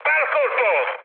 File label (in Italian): bomb success, mood high, speech it; War Thunder